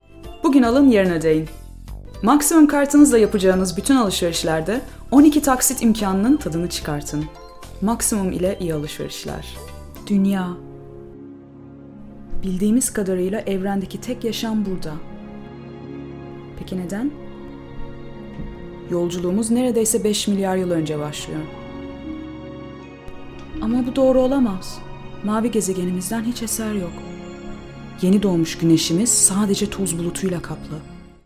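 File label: Turkish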